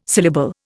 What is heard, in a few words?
"syllable", Indian